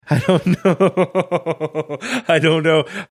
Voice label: saying i dont know laughing

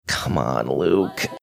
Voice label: quietly saying come on

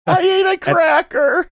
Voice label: saying i ate a cracker funny

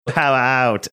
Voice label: saying power out australian accent

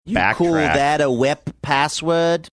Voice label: you call that wep password australian

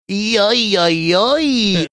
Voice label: yoi yoi yoi funny

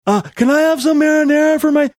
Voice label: funny

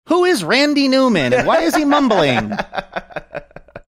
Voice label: old timey newsreel voice; who is randy newman and why is he mumbling

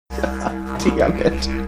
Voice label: quietly saying goddamnit

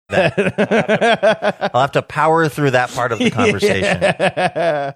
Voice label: laughing saying ill have to power through that

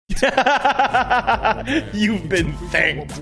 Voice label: laughing saying youve been thanked

